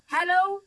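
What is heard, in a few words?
voice acting, es, female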